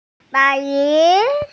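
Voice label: "bai2yun2", Mandarin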